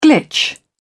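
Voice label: "glitch", British English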